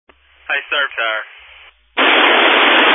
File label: Family Radio Service (FRS)